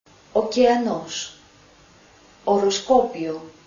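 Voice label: "Omega", Greek alphabet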